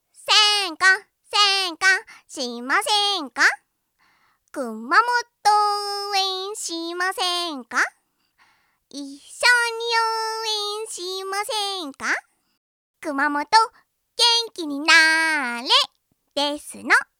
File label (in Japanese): しませんか♪（お誘い風味ですの）; 看板娘アイドル企画2c; オンリーワンアイドル志望のお嬢様ですわ